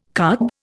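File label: "carb", Indian English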